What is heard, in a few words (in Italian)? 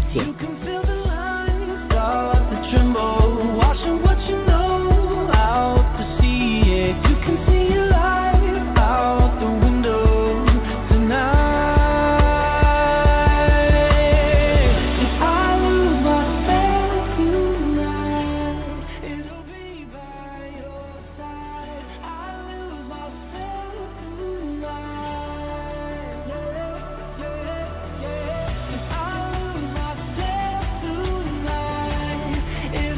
Messaggio Notte